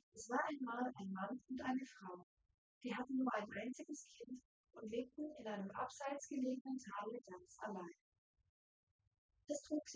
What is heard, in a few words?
female4 synthconv; SiSEC08; SiSEC underdetermined